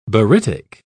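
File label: "barytic", British English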